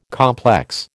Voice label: "complex", American

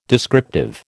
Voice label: "descriptive", American

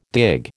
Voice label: "dig", American

